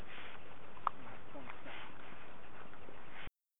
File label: Spanish Word; bridgeton cemetary